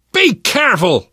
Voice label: team kill accident, left4dead2 survivor voice namvet; source engine